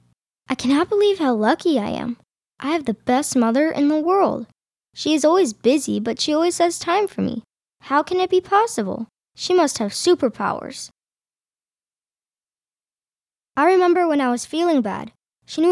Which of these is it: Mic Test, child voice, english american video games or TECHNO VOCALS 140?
child voice